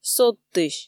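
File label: "содтысь", Komi, female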